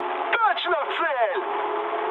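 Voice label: Russian bomb success mood med, speech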